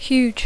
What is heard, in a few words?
"huge", English; adjectiu; gegant